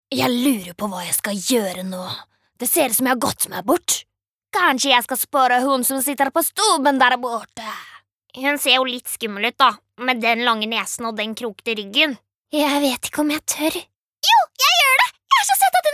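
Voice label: Norwegian dub characters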